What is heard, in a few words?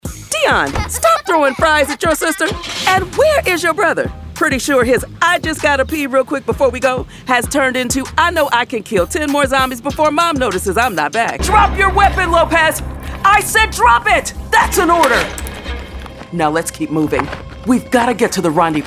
Animation